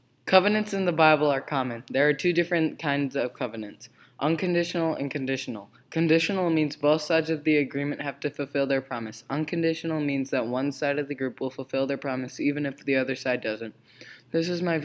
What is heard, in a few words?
Narration Vocal